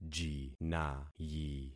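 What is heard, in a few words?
"jinayi", concatenated syllables; Affixing experiment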